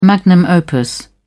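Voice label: "magnum opus", British English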